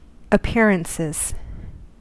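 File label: "appearances", US English; pronunciations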